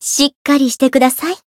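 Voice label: heal, Japanese; rosetta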